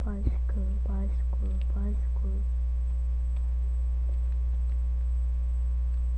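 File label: "bicycle", spoken word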